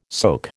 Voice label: "soke", American